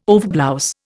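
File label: "overblouse", Indian English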